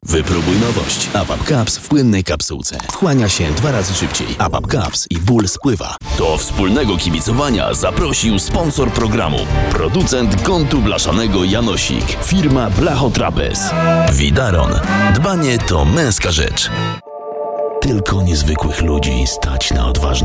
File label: reklama commercial